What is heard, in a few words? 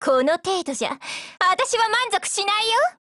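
Japanese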